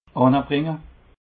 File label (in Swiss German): "annebringe", alsace